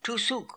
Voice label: "Toosook", pronounciations; Fourth Way; Beelzebub

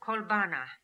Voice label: "Kolbana", pronounciations; Fourth Way; Beelzebub

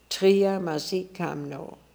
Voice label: "Triamazikamno", pronounciations; Fourth Way; Beelzebub

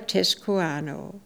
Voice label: "Teskooano", pronounciations; Fourth Way; Beelzebub

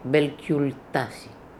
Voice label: "Belcultassi", Beelzebub pronounciations; Fourth Way; RUS

